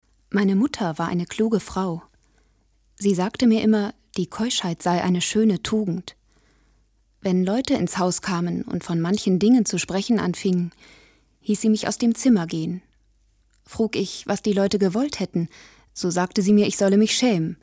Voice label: erzaehlung

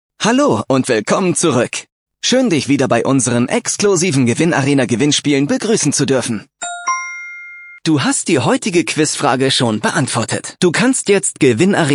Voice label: Sprachprobe Alexa Skill; LOFT VOICES

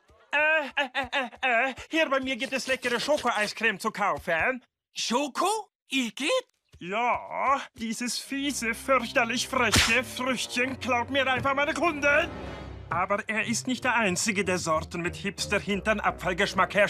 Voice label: Synchron Cartoon; viking ice con; solvik

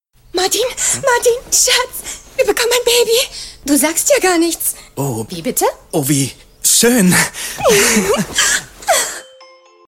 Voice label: Werbung Freude; LOFT VOICES

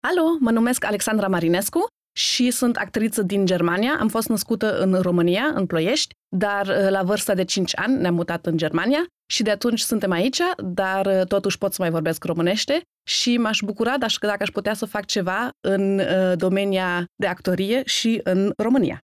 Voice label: Vorstellung Rumänisch

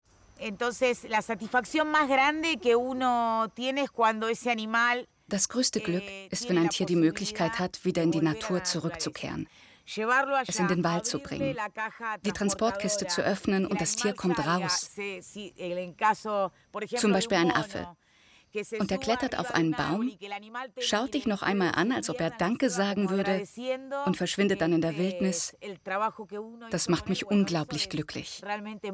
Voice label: Doku

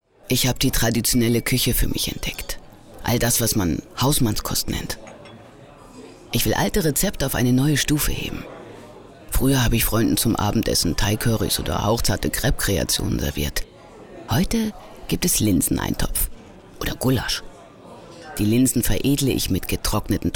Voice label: testimonial kochen

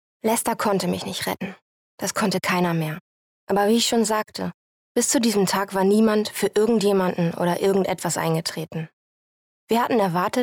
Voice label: erzaehlung

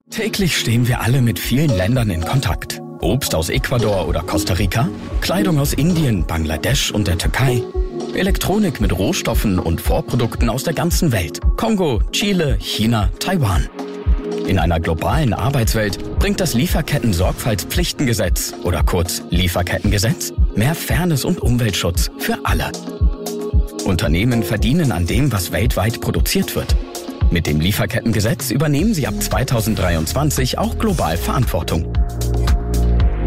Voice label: DEUTSCH; Lieferkettengesetz

